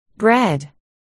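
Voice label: "bread", spoken word, articulation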